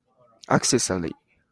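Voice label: "accessory", Japanese, male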